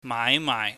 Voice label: "maaihmaaih", Cantonese